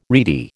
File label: "reedy", American